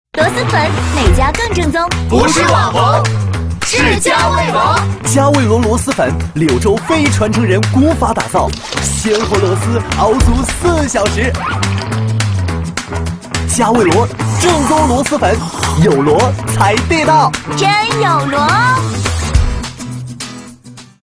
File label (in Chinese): 男 广告 激情活力; 佳味螺·螺蛳粉